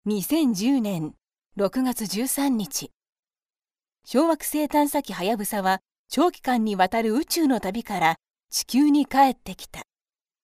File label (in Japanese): narration